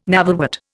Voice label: "navelwort", Indian

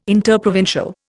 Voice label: "interprovincial", Indian

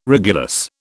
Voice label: "regulus", British

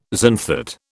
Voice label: "xanthate", British

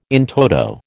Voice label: "in toto", American

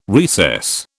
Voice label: "recess", British

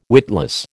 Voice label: "witless", American

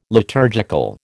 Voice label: "liturgical", American

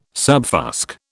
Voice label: "subfusc", British

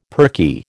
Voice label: "perky", American

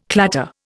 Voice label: "clatter", Indian

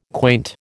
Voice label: "quaint", American

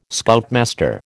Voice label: "Scoutmaster", American

